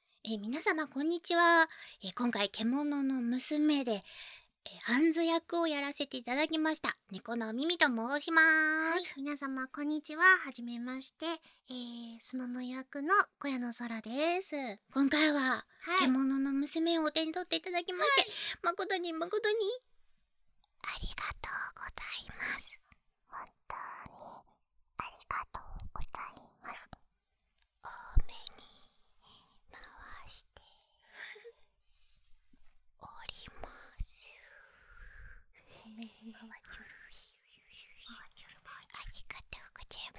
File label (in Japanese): フリートーク; ドラマ